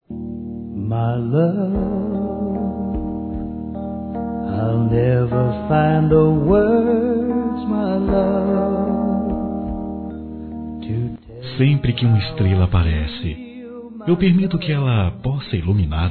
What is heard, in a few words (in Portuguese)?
Conquista Masculino, Cantadas; Telemensagens; Conquistas Cantadas paqueras; Telemensagens 2017